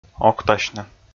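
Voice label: "октасьны", Komi, male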